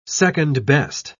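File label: "second-best", British English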